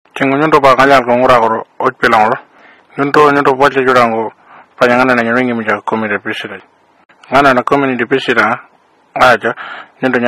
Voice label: Pintupi